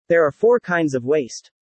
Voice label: fast; VR classroom Test